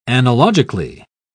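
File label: "analogically", British English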